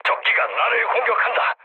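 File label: Korean aircraft damaged mood low; speech; War Thunder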